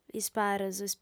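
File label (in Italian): "isparos", lingua sarda, fem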